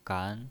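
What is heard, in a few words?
"gan", Mandarin Chinese, second tone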